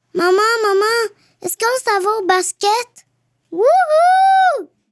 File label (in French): Demo vocal; FR 2023 - Publicité 3